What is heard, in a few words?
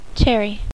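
"cherry", female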